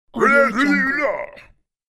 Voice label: caveman threatening loudly; cartoon